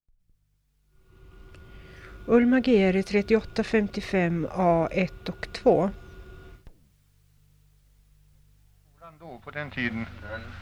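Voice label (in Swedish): Edsbro Uppland, dialekter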